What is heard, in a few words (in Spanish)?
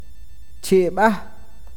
carne, awakateko